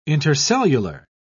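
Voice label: "intercellular", British English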